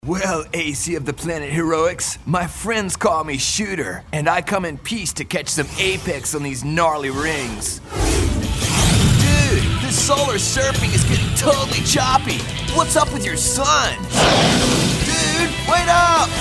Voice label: surfer dudes